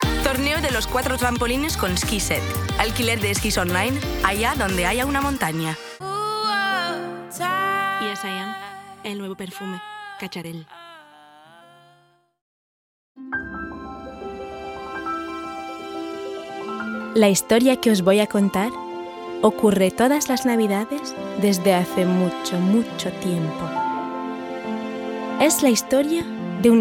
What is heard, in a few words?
Spanish commercial narration fiction